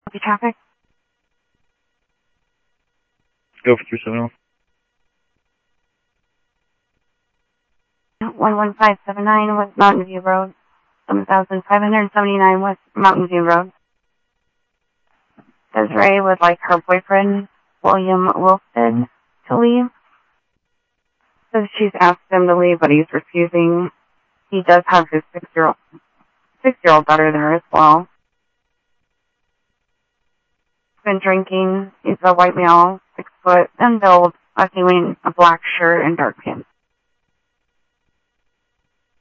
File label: Sheriff, scanner; MCSO - WEST Sheriff-WestCnty; ThompsonPeakScot